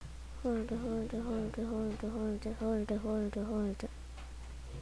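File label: "hold", spoken word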